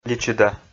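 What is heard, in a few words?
"личыда", Komi, male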